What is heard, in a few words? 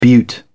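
"beaut", British English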